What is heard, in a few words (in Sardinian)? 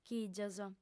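"chìgios", female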